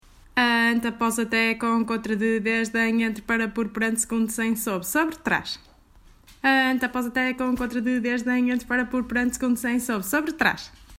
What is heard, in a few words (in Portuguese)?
Preposições, Portuguese